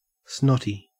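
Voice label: "snotty", Australian English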